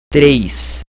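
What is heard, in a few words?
"três", Brazil, male; τρεῖς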